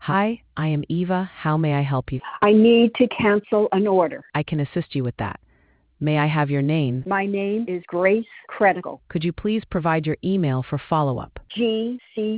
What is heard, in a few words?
conversation